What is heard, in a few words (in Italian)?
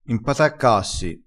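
"impataccassi", dialetto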